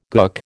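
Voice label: "gook", American English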